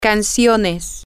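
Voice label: Spanish, songs, female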